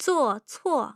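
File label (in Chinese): zuo4 cuo4, Mandarin; tough sounds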